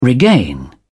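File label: "regain", British English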